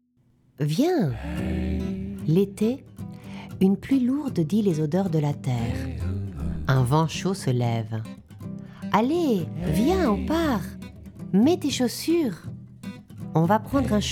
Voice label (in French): bilingue; Viens